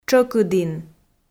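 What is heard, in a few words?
"тшӧкыдін", Komi, female; audio dict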